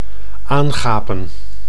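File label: "aangapen", Dutch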